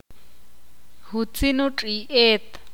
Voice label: ixil; ayer el perro corri